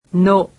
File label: japanese alphabet "no"